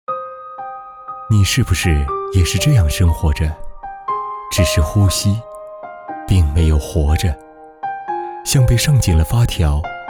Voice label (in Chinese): 深情走心; 你是不是也是这样生活着